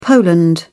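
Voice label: "poland", British English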